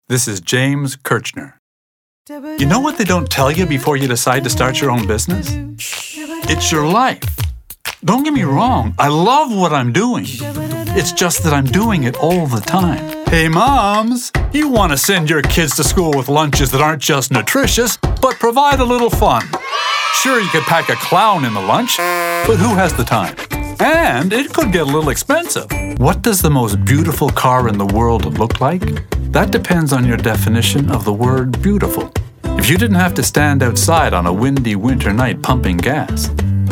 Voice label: commercial male